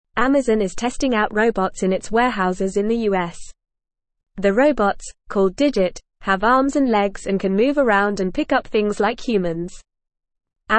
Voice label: English Upper Intermediate FAST Reading; English Newsroom; Amazon Tests Humanoid Robot in US Warehouses

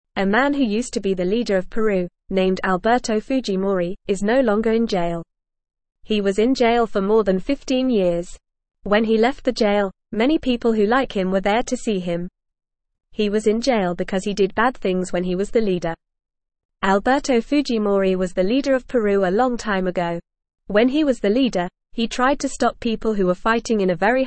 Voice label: English Lower Intermediate FAST Reading; Alberto Fujimori the leader of Peru is free

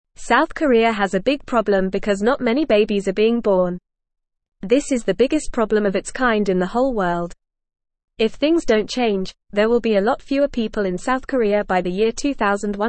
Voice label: English Beginner FAST Reading; English Newsroom; South Koreas Big Problem Not Enough Babies

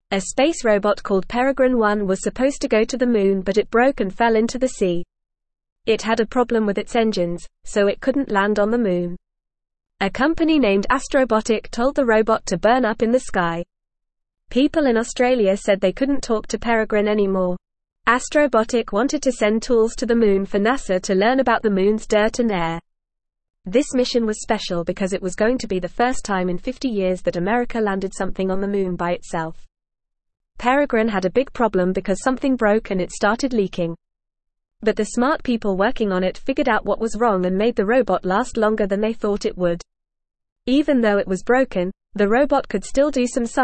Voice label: English Lower Intermediate FAST Reading; Space Robot Peregrine One Falls into Ocean; English Newsroom

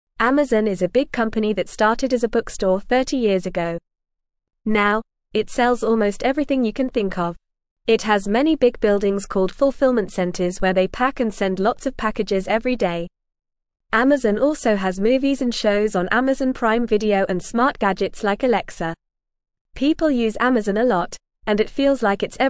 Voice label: English Beginner FAST Reading; Amazon From Bookstore to Everything Store

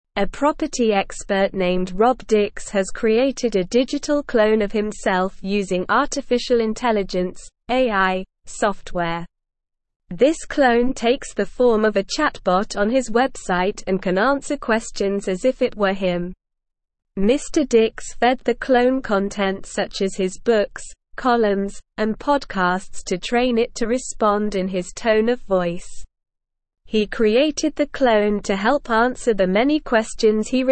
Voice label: English Advanced SLOW Reading; English Newsroom; UK Property Expert Creates AI Clone to Streamline Workload

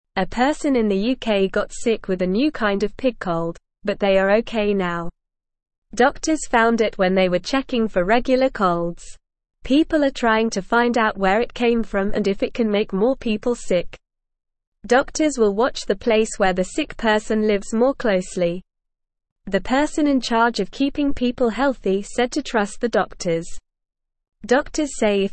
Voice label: English Beginner NORMAL Reading; New Pig Cold Found in UK Doctors Watching; English Newsroom

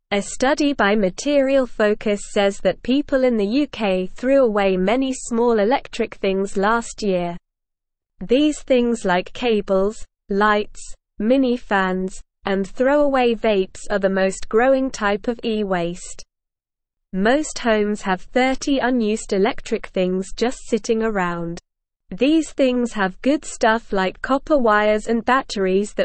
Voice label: English Newsroom Beginner SLOW Reading; People in the UK throw away lots of electric things

